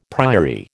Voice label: "priory", American